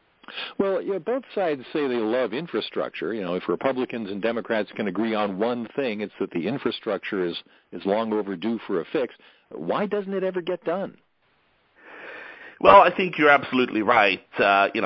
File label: sirius soundbite, Radio interviews